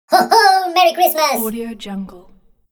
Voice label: cartoon character laughing and wishing merry christmas